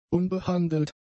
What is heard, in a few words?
"unbehandelt", German; adjectives